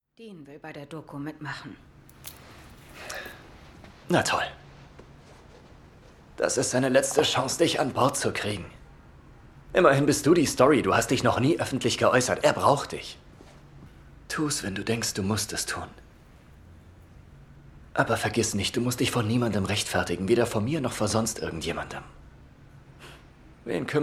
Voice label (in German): Synchron, maenner; Netflix; Ich bin so glücklich